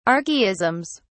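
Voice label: "archaisms", British English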